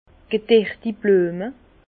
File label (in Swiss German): "gederti", Bas Rhin; fleurs fanées; vin